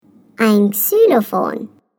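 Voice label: "xylophone", German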